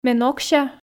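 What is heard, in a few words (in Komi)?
ме нокся, Komi, female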